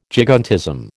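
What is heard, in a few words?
"gigantism", American English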